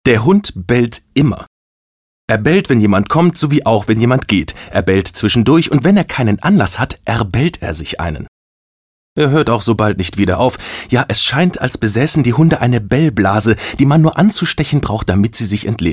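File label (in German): Erzählung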